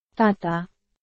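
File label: Burmese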